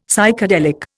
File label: "Psychedelic", Indian; Dictionary